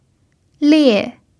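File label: "lie4", Chinese; pinyin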